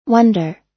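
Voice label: "wonder", US English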